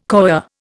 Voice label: "coir", Indian